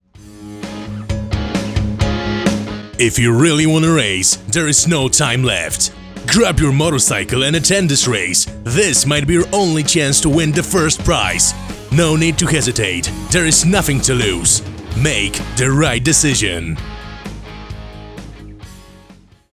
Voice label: English commercial